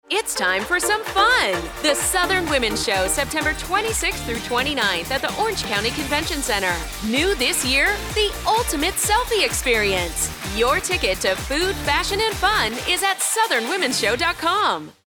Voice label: Radio Spot; Southern Womens Show